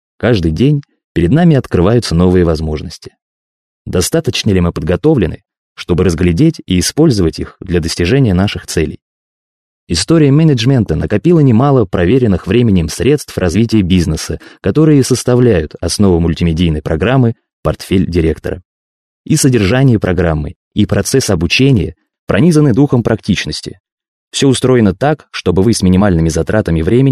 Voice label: russian male

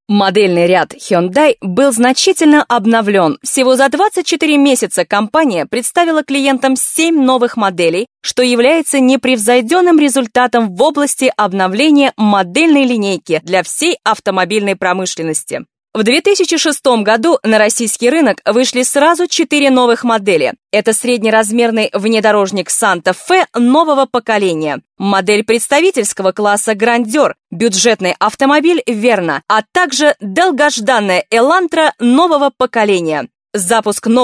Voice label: russian female

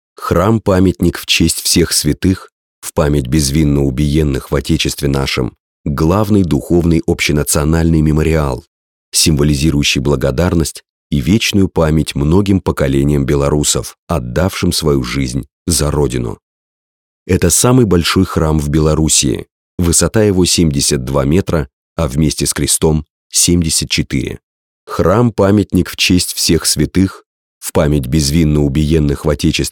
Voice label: russian male

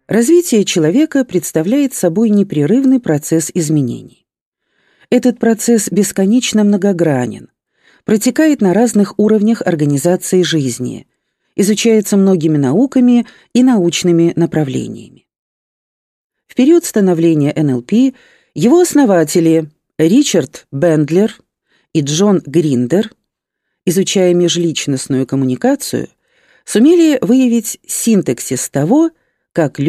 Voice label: russian female

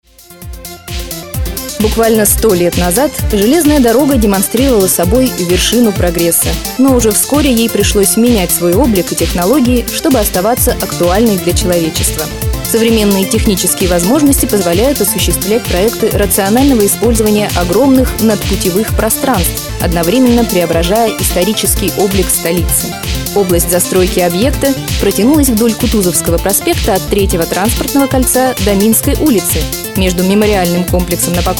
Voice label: rus eng

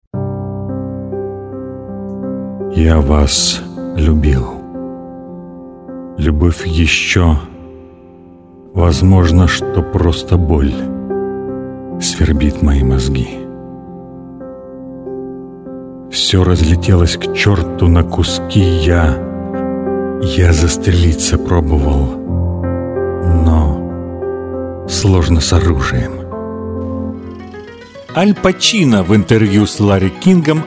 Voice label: RUS

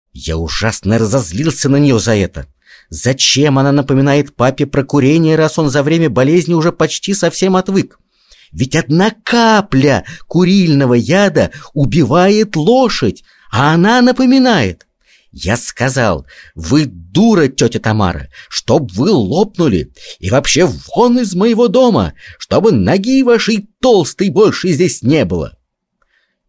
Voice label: russian male

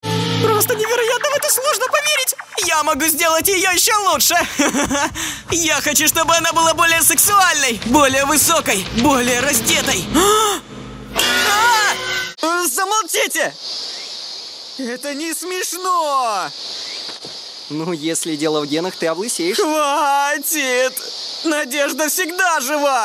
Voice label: rus

